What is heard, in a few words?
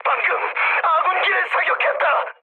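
Korean player killed ally mood high, speech; War Thunder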